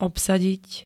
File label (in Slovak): "obsadiť", Slovenské